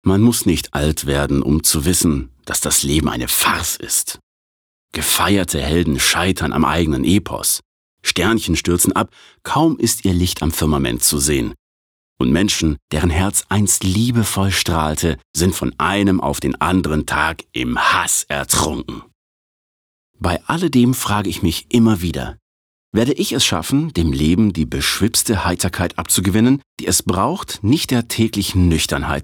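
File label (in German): Schauspiel, Weltschmerz, Neutral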